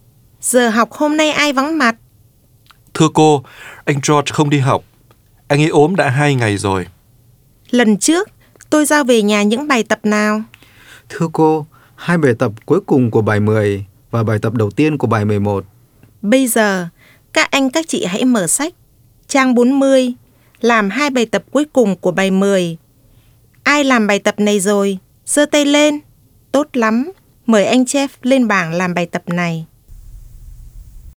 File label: Dialog